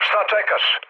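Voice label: Serbian attack position mood low, speech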